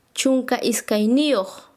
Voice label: Chanka, quechua; doce